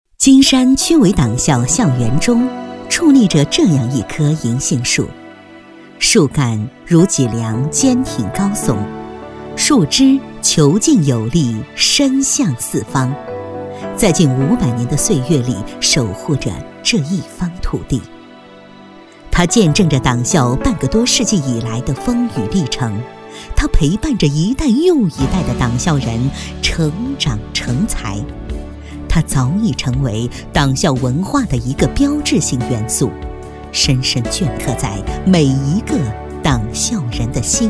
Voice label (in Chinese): 女 专题; 金山区委党校